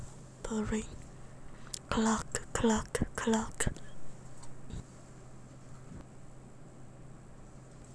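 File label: "clock", spoken word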